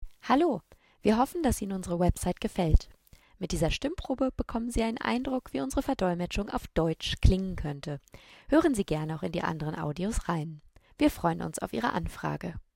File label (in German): Deutsch